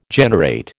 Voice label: "generate", American

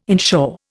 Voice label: "inshore", Indian

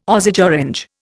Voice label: "Osage orange", Indian

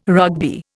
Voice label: "Rugby", Indian

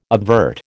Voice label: "obvert", American

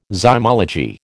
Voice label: "zymology", American

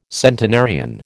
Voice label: "centenarian", American

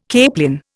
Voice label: "capelin", Indian

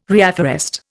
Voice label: "reafforest", Indian

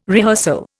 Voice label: "rehearsal", Indian

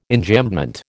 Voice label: "Enjambment", American

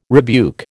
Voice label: "rebuke", American

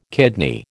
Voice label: "kidney", American

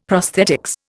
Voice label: "prosthetics", Indian; Dictionary

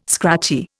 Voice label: "scratchy", Indian

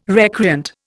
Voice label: "recreant", Indian

